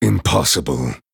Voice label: Male Night Elf

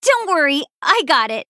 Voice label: Female Human

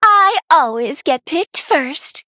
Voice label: Female Night Elf Picked; Stelina

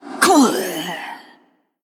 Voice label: Female Ghost Death

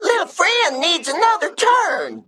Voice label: Male Mech

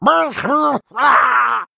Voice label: Male Saltscale Death

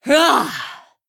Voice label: Female Dragon Hero Attack

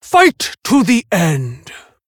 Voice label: Female Tauren; Story Hero Helka

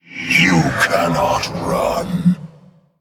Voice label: Male Elemental Attack

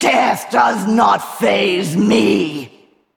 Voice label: Female Val'kyr; DEAD MANS HAND

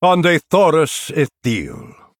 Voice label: Male Night Elf Greetings

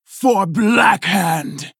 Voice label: Female Orc

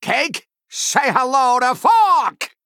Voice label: Male Fork Attack

